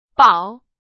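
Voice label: Chinese, vocabulary; hsk2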